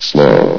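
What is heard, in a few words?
"slow", announcer voice; Source Engine; bshift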